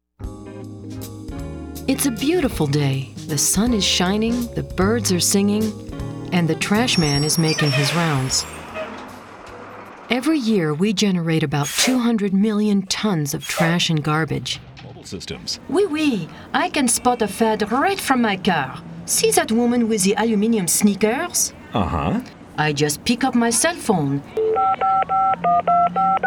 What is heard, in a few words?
voiceover female